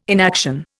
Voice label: "inaction", Indian